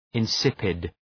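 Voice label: "insipid", English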